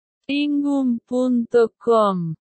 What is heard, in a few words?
spanish